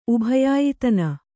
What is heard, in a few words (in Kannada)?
"ಉಭಯಾಯಿತನ", Kannada